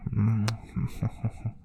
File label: Mud man whisper